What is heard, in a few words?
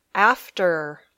"after", English